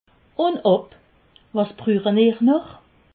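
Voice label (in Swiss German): "unob", Alsatian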